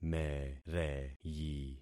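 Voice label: "mereyi", concatenated syllables; Affixing experiment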